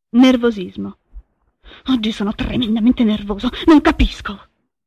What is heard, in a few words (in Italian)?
nervosismo